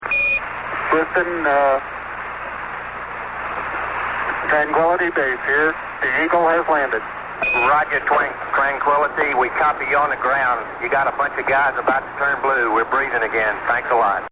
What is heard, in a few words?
Astronaut Radio Disptach; Radio Dispatches; Partners In Rhyme